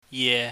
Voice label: "ye", pingyam